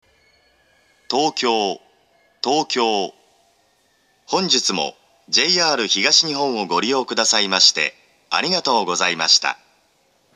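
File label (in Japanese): 終着放送, 東京駅; １番線終着放送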